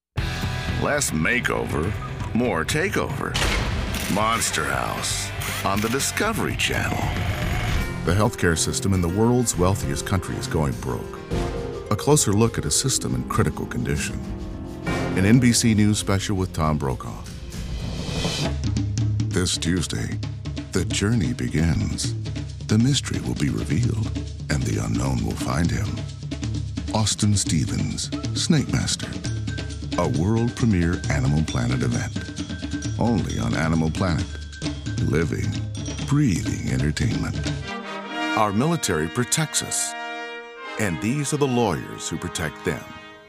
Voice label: Promo

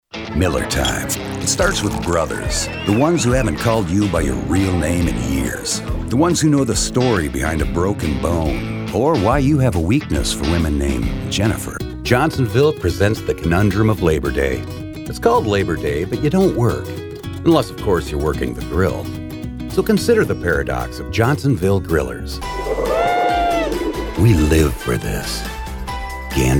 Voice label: commercial VO

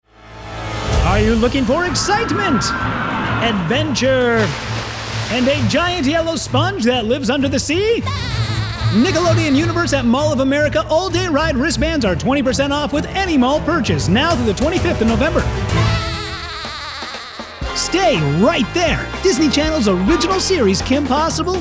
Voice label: Male Promo

